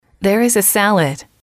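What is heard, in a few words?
"there is a salad", English, female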